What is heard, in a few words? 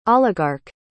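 "oligarch", US English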